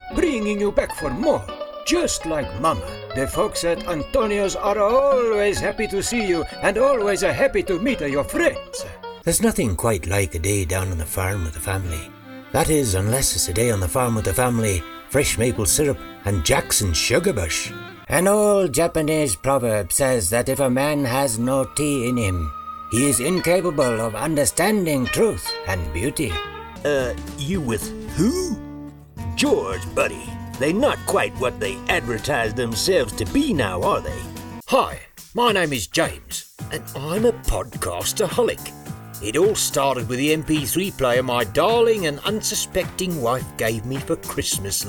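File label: Commercial Humorous Variety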